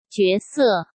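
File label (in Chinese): "角色", Mandarin Chinese; hsk; chinese-flashcards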